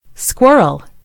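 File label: "squirrel", American English; gcompris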